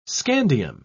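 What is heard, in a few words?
"scandium", British English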